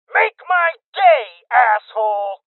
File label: Voices Freeze Male Young